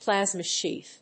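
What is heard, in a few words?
"plasma sheath", English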